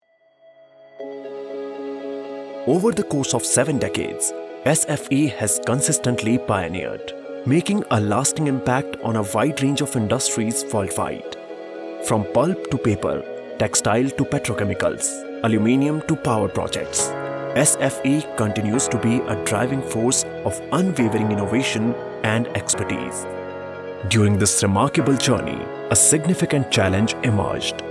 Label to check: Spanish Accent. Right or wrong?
Wrong